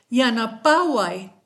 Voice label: help, kichwa